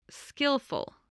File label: "skillful", voice, spelling